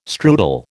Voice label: "strudel", American

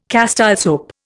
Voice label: "Castile soap", Indian

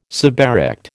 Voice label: "suberect", American

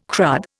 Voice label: "crud", Indian

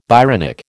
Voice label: "Byronic", American

